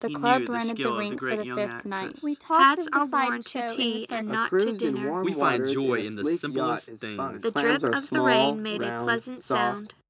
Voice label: anechoic src1 80 src2 60 16kHz; SiSEC08; SiSEC headgeometry